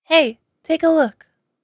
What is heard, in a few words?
hey take a look, girl; voice-ringtones